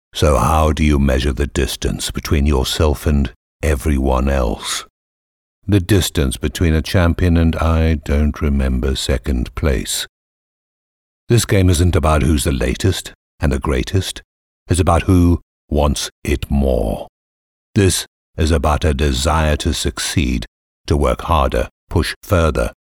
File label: english south african automotive